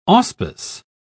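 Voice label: "auspice", British English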